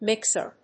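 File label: "mixer", English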